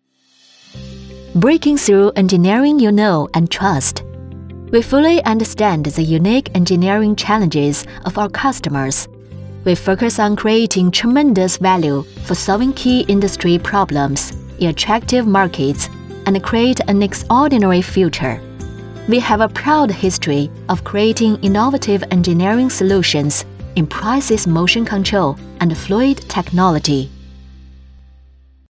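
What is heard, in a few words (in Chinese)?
英文企业介绍; 诺冠氢能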